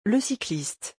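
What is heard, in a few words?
"le cycliste", French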